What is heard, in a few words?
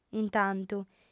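"intantu", female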